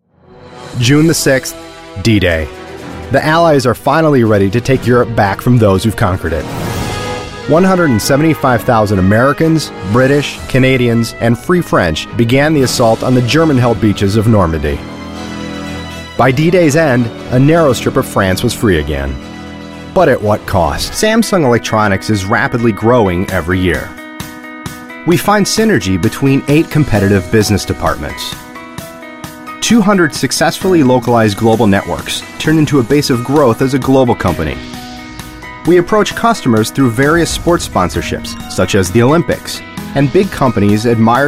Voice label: English US